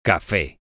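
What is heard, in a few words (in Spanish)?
"cafe", Spanish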